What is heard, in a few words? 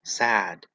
"saed", Anglo Saxon